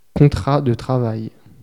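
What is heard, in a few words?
"contrat de travail", French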